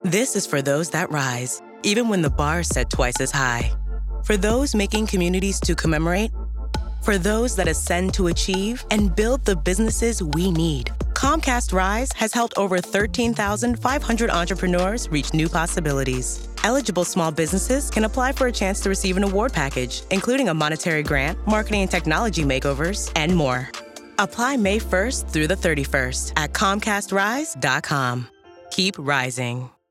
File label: english; Comcast Rise 2024